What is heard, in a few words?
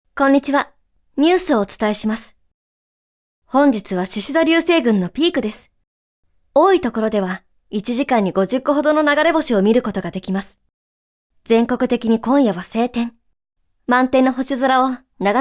girl